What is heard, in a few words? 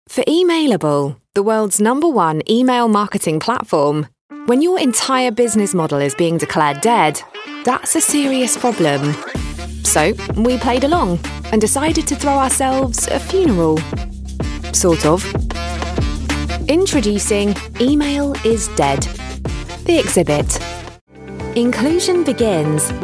corporate